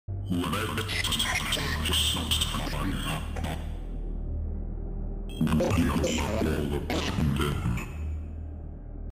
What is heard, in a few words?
pissed off; altiars corupted; catch fraises